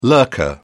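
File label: "lurker", British English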